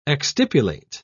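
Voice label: "exstipulate", British English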